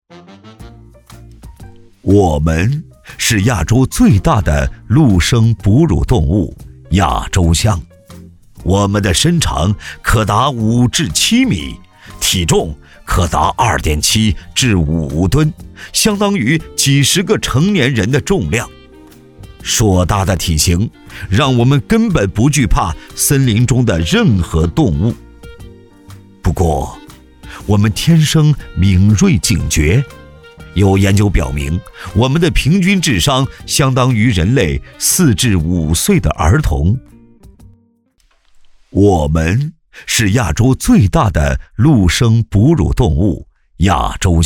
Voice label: 男, 角色, 自述; 亚洲象

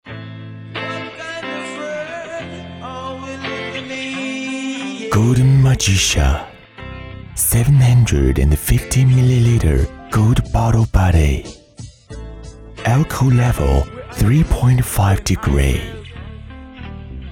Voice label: 英男 英文广告 优雅质感

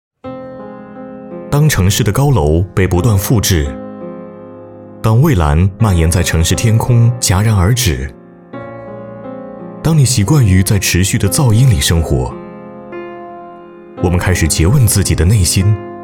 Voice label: 男 广告 高端地产

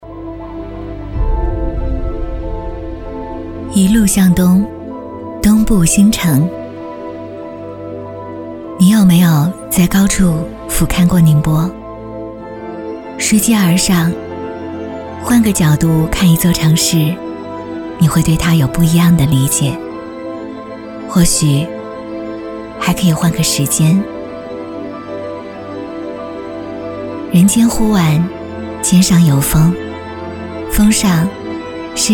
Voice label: 女 走心旁白; 宁波

